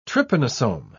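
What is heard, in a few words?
"trypanosome", British English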